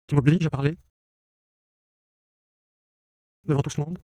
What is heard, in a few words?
Neutral fear, voice